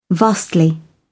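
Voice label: "vastly", British English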